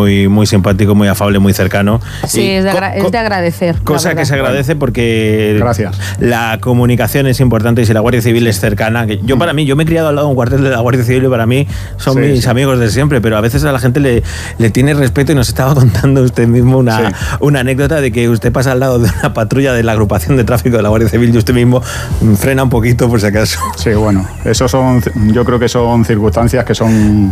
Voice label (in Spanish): entrevista radio; desaparecidos comandante gc; radio sirena COPE